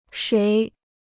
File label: "谁", Chinese; shéi; who; also pr. [shuí]